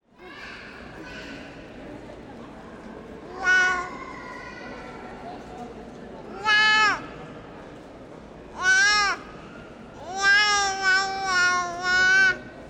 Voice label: Shouting